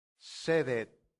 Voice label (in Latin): "sedet", latin; santillana